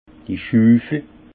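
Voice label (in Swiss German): "schuffel", Haut Rhin; vin; pelle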